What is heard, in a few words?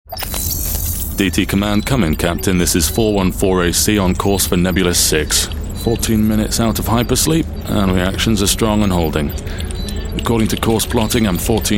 mid atlantic voice computer game